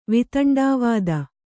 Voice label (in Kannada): "ವೈತಂಡವಾದ", kannada